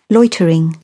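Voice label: "loitering", British English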